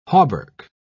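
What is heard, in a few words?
"hauberk", English, uk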